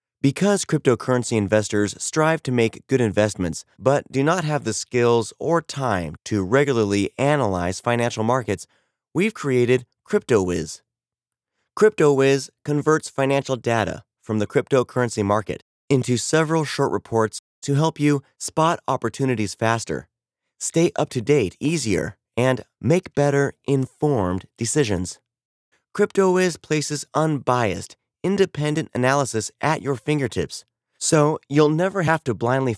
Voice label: dry; Cryptowiz

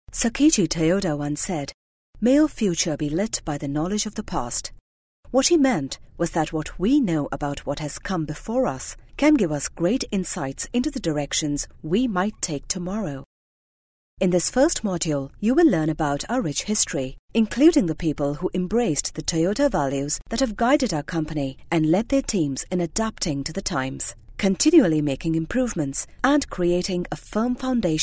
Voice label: E-Learning Neutral; Toyota

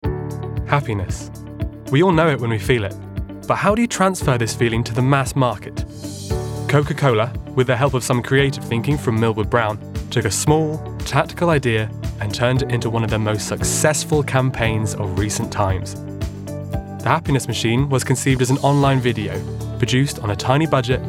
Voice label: Corporate Reel

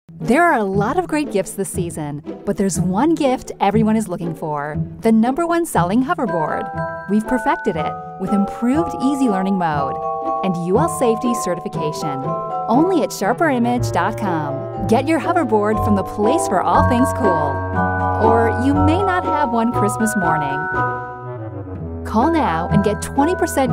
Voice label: TV Spot

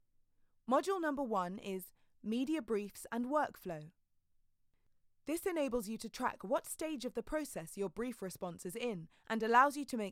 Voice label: E-Learning

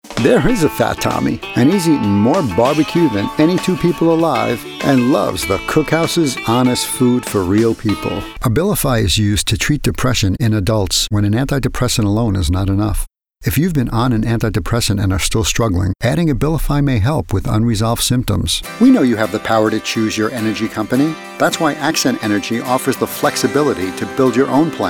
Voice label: Commercial Voiceover

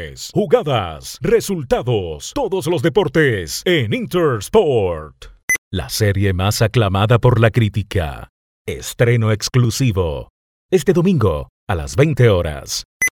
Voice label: RADIO TV ID

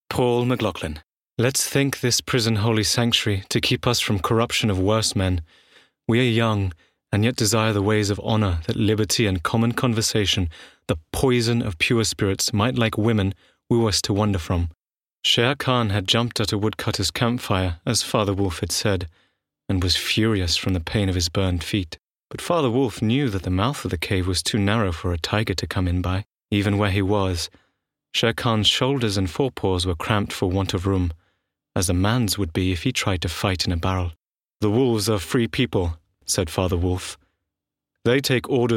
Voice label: British Drama and Narrative

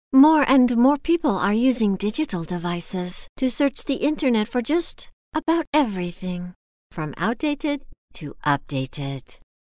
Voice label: ROBOTIC VOICE

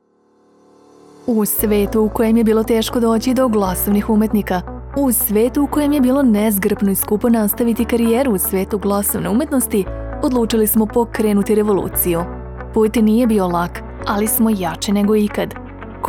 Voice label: Serbian Produced Mix

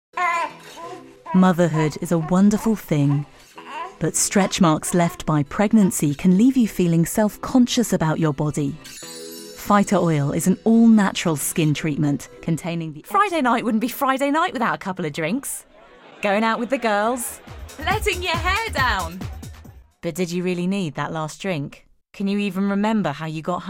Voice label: RADIO

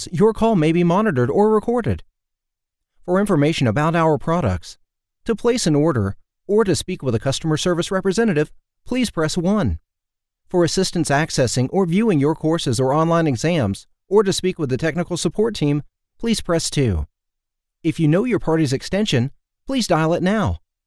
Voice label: Mortgage IVR Main; KAP

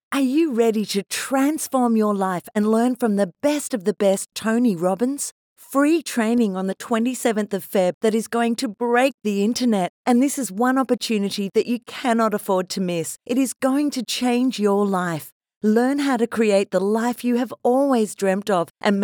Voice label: Advertisement; Tony Robbins

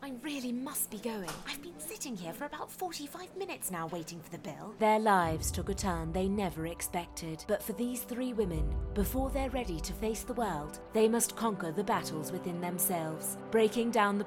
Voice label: RP British

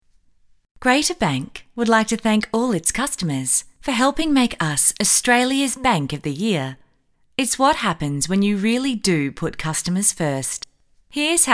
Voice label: Corporate

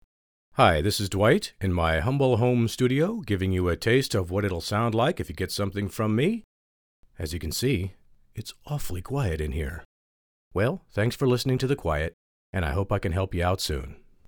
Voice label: Room Sample

